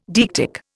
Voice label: "deictic", Indian

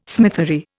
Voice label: "smithery", Indian

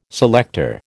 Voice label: "selector", American

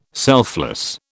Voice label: "selfless", British

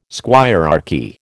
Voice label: "squirearchy", American

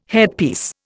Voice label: "headpiece", Indian

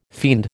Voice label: "fiend", American

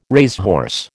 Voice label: "racehorse", American

